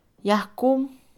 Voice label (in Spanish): inyección, Mixe, Mujer; Moderno; INALI